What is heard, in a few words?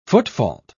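"footfault", British English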